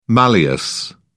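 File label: "malleus", English, uk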